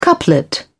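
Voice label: "couplet", British English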